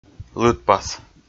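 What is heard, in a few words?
"лыдпас", Komi, male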